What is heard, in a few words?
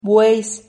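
"bueis", Spanish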